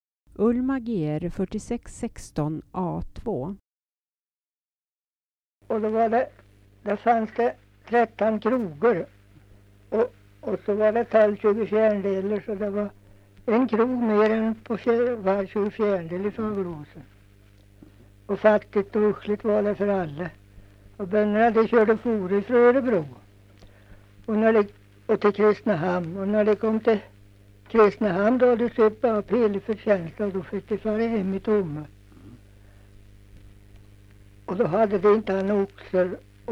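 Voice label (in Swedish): Nysund Närke, dialekter, svenska sagor; Folkminnen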